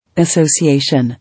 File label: "Association", English